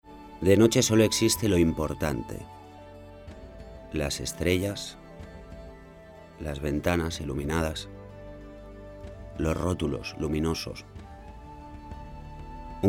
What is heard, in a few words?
male spanish car comercial; ideasonora